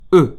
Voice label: French alphabet e